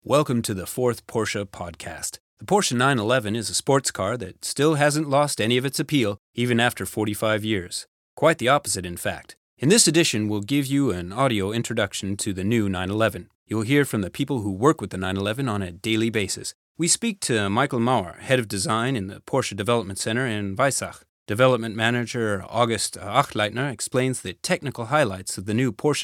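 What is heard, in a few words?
us englisch, American English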